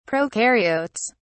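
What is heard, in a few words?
"prokaryotes", British English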